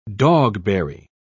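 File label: "dogberry", British English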